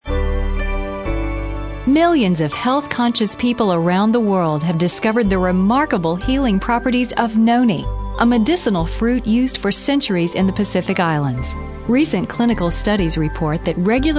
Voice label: narrations